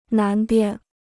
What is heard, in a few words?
"南边", Chinese